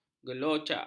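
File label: "gelojak", Malay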